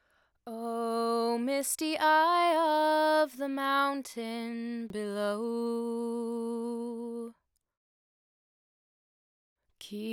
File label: Vox Normal; Formant Changes